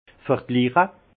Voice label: "vergliche", alsace; vin; comparer

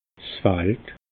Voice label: "fald", alsace

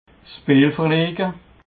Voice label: "speel verlege", alsace

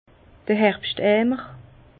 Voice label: "herbschteimer", Bas Rhin; vin; seau

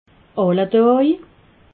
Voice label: "alledaj", alsace

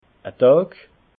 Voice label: "dag", alsace

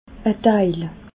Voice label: "deil", alsace

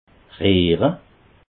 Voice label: "riehre", alsace

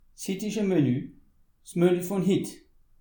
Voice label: "menu", alsace

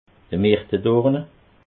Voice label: "mirtedorne", alsace

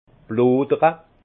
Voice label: "blodere", alsace; vin; bulles

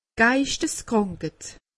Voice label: "geistiskrankeit", alsace; medecine

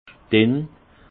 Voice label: "dinn", alsace; vin; mince

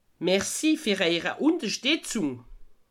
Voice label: "unterstitzung", alsace

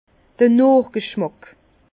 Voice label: "nochgschmack", Bas Rhin; vin; longueur en bouche

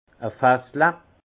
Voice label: "fassla", alsace; vin; tonnelet

